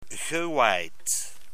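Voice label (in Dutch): "gewaaid", Dutch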